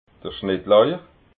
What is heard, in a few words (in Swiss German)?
"schnittlauich", alsace